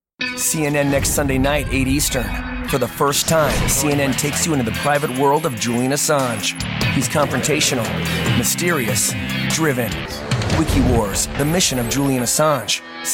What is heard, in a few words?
PROMO